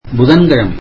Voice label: tamil Wednesday

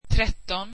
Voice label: thirteen, swedish

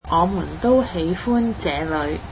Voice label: cantonese we are happy here

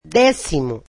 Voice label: "tenth", portuguese

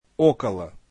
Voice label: "near", russian

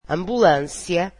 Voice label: "ambulance", Portuguese

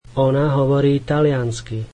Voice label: slovak she speaks Italian

